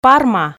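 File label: "парма", Komi, female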